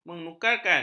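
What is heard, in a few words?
"menyukarkan", Malay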